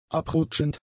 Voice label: "abrutschend", German; adjectives